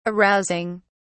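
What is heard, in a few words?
"arousing", British English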